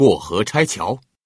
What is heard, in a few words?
dismantlement, male; FreeKill; standard cards